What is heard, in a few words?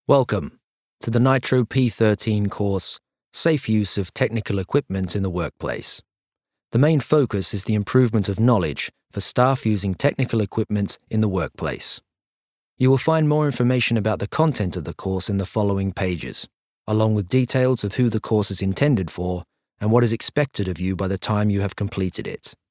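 British English elearning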